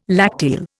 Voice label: "lacteal", Indian